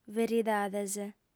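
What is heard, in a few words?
"beridades", lingua sarda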